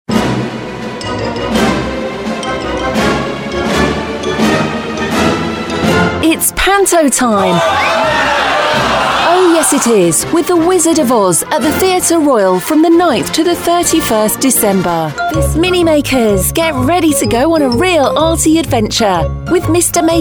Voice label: Radio Commercials

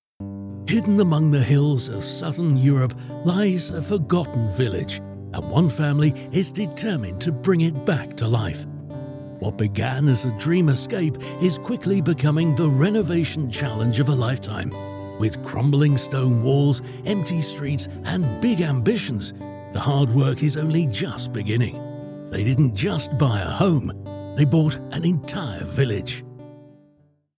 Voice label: Voice Over Character Narration Senior Style

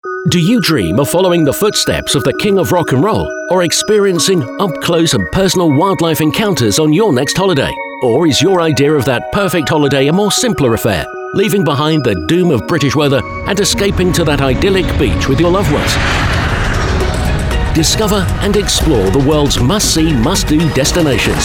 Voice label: TRAVEL AGENT RADIO ADVERT

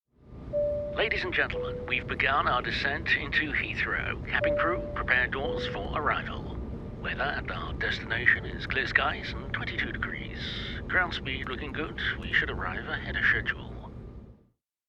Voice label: Airline Pilot Character

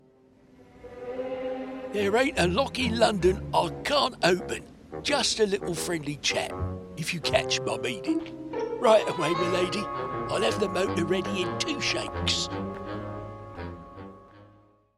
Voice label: Character, character voices; Parker

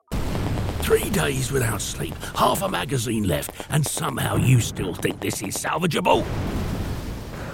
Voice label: Characters Voices Army Battle Field

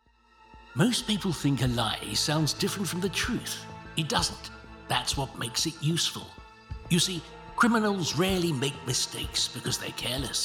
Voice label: British Voice Over Actor Sleuth

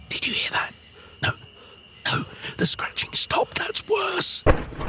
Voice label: Characters Voices Suspense

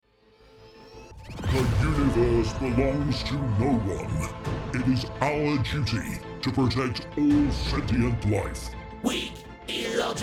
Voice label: Characters Dalek v Transformers, character voices

